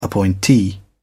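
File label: "appointee", British English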